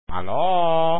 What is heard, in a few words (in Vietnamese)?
tieng noi alo keo dai giong nam